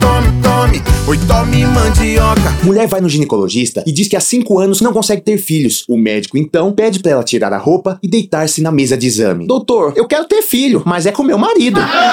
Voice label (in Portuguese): spot piada; rei da mandioca